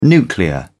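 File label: "nuclear", British English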